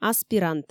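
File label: "аспирант" Komi, female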